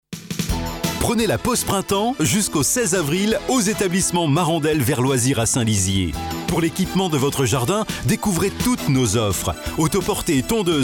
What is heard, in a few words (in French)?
PUB VOIX OFF